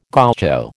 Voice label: "gaucho", American